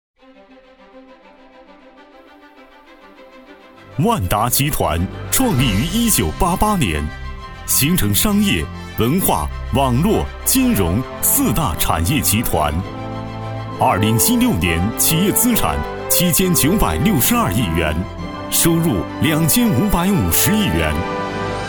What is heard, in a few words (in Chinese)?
男 企业专题宣传 大气 激情; 万达集团